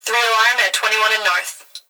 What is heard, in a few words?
Emergency Human Female Voice Fire Dispatch Three Alarm At And North; emergency effects